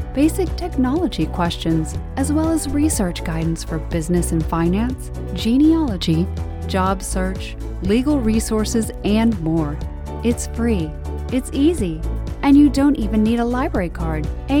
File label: online PSA w music